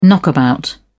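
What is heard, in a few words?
"knockabout", English, British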